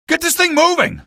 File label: reach train, male; video games; npc; source engine; episodic; episode 1